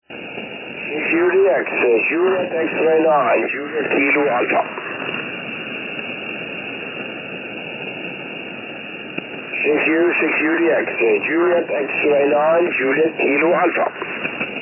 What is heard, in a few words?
20m ssb